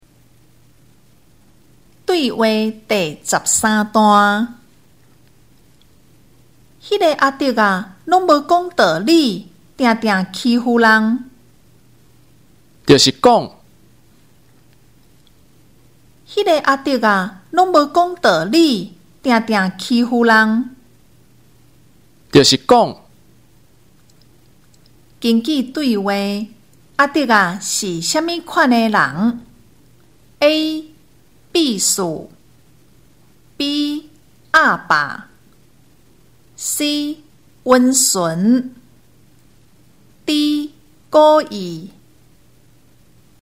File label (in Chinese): 對話, 閩南語; 對話理解; 閩南語語言能力認證; 閩南語能力測驗題本